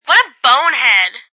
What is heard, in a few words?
What A Bonehead, Attitude, Female, child; VoiceBox